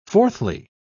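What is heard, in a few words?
"fourthly", English, British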